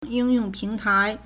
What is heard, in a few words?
"ying4 yong4 ping2 tai2", Chinese